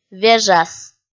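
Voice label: "вежас", Komi, female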